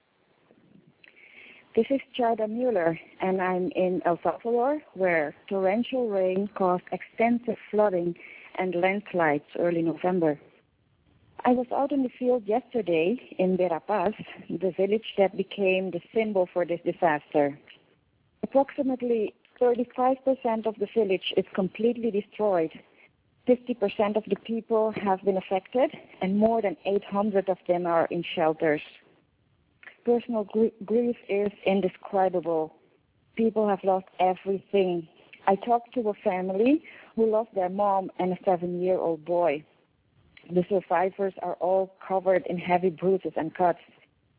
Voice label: Voice Message; In Verapaz, El Salvador, destruction is widespread